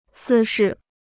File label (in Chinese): "私事", Chinese